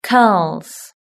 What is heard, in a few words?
"curls", British English